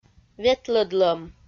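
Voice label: "ветлӧдлӧм", Komi, female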